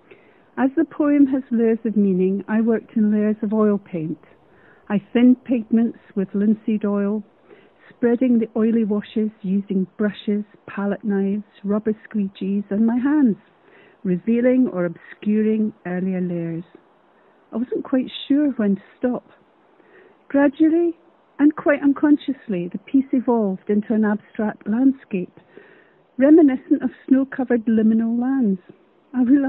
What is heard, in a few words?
voice over